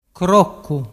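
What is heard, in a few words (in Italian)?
"croccu", dialect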